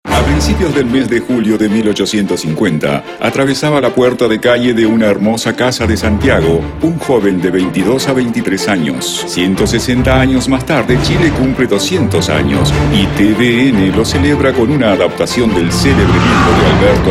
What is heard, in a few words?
Male 40-50 years Medium Low, spanish south america